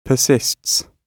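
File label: "persists", British English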